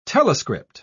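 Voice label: "telescript", British English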